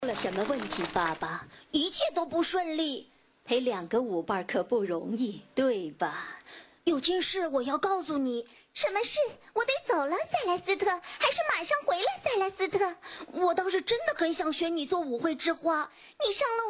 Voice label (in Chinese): 多角色动漫配音